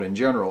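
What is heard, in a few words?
"in general", American English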